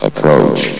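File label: "approach", male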